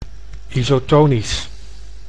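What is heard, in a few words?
"isotonisch", Dutch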